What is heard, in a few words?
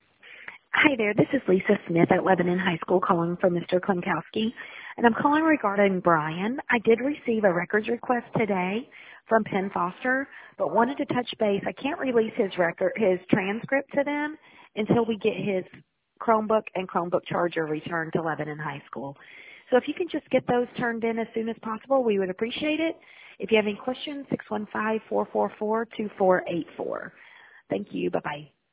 voicemail; covid